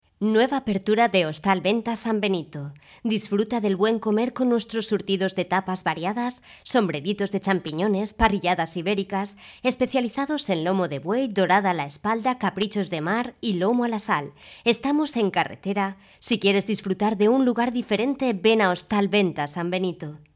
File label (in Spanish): locutora spain